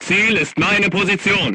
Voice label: voice message art command oneself, crew tanks german